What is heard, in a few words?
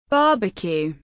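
"barbecue", English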